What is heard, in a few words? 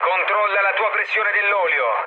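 Italian damaged oil mood low, speech; War Thunder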